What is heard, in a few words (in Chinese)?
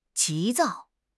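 "急躁", Mandarin Chinese; HSK6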